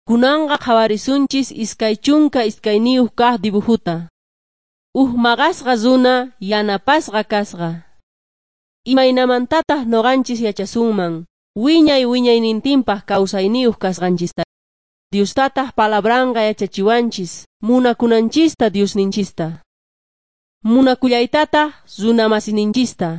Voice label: jesus lord and saviour quechua s bolivian cochabamba